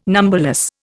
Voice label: "numberless", Indian

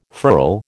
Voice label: "furl", American

